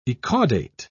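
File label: "ecaudate", British English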